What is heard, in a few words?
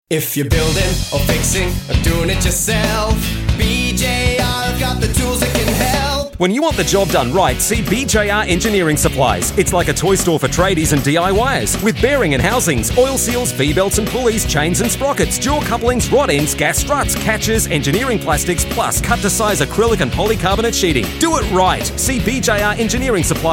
commercial; bjr engineering 7bu